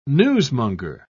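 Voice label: "newsmonger", British English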